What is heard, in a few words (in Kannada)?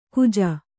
"ಖೋಜಾ", Kannada